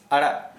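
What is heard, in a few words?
Santali male free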